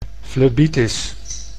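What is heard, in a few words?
"flebitis", Dutch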